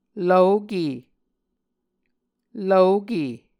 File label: "lvoke", Muscogee